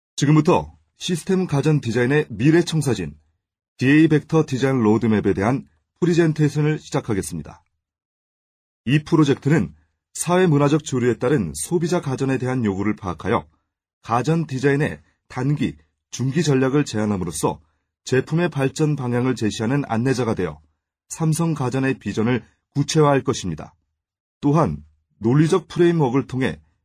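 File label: Korean male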